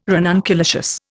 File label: "ranunculaceous", Indian